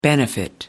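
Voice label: "benefit", English, British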